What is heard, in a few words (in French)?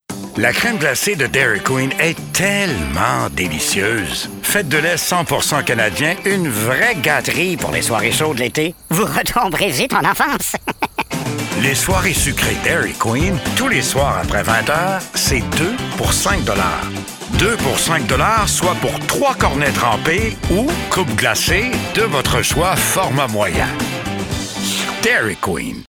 francais quebecois naturel annonceur amical avec sourire adulte; dairy queen